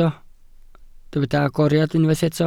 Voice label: "agoriad", Welsh